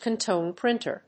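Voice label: "contone printer", English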